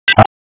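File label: Police Spec Ops Tac, radio; Wake; p25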